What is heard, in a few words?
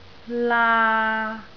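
"laa3", Cantonese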